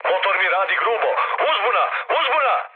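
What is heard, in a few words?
Serbian damaged engine mood med, speech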